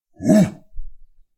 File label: guard male; SyrinScape; Waterdeep